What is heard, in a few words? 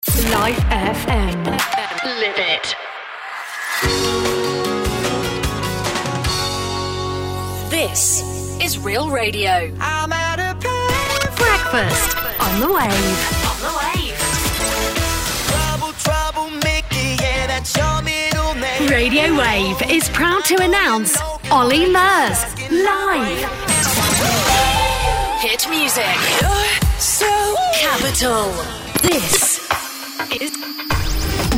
Imaging Promo